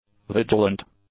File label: "vigilant", English; adjectives